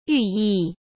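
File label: "寓意" Mandarin Chinese; chinese-flashcards; hsk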